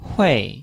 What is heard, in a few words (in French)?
"hui4", chinois